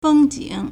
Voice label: "feng1 jing3", Chinese

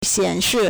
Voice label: "xian3shi4", Chinese

